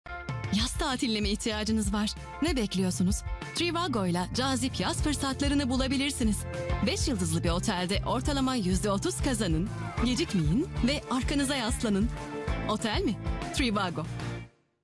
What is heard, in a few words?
turkish female commercial